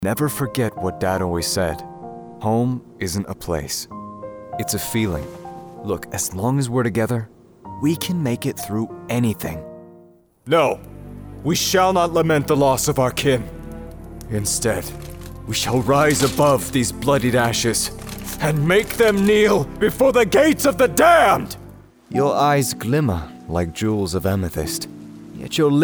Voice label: character

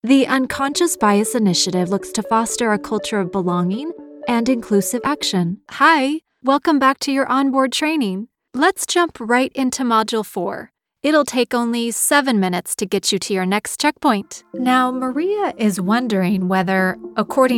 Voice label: E-Learning

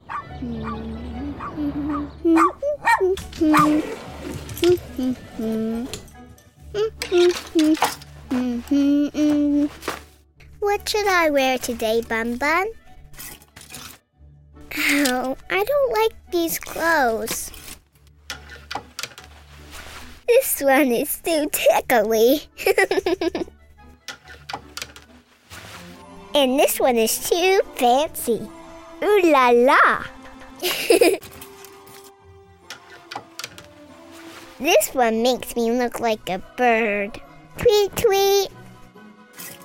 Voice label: Animation Voiceover; DRESSING UP

